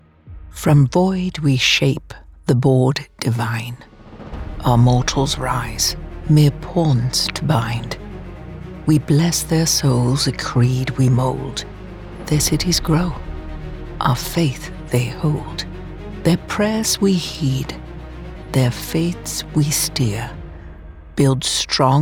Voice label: Trailer; LOR Style